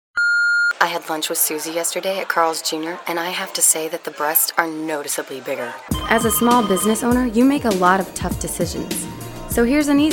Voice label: women commercial; Casual, smooth, slightly raspy, yet warm and friendly.